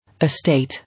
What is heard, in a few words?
"Estate", English